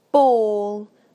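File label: "ball", British English; PictureDictionary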